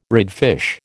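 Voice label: "redfish", American English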